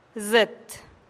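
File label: "zit", Teotitlán del Valle Zapotec; hueso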